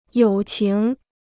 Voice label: "友情", Chinese

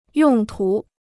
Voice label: "用途", Chinese

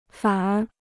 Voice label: "反而", Chinese

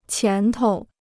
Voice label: "前头", Chinese

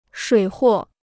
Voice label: "水货", Chinese

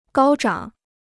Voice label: "高涨", Chinese

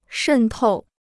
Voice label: "渗透", Chinese

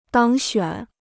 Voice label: "当选", Chinese

